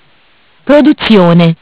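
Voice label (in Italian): "Produzione", Italian; Bentel; BLE320; MESSAGGI VOCALI; Audio BGS220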